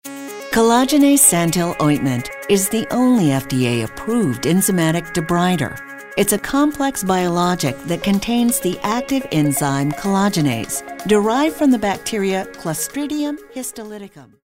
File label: authoritative